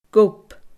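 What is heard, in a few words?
"gob", Scottish Gaelic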